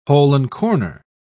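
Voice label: "hole-and-corner", British English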